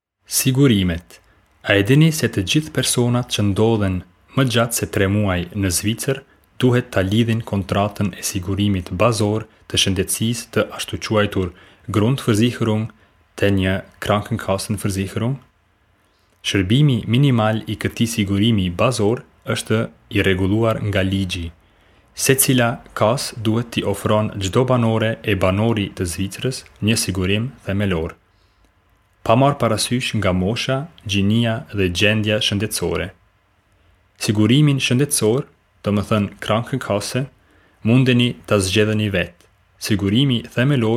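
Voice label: Albanian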